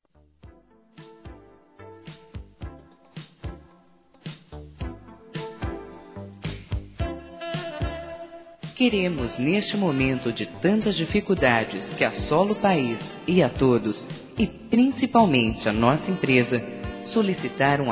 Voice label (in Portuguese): ATRAZO DE PAGAMENTO, fem, Telemensagens Empresarial; Telemensagens 2017